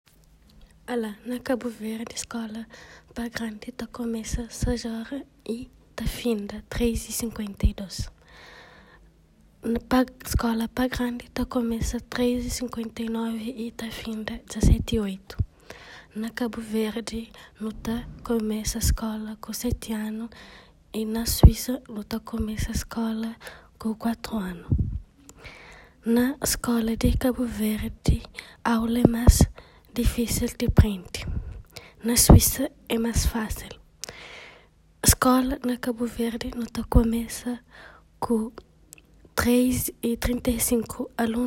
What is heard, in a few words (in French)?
creole